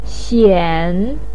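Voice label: "xian3", Mandarin pinyin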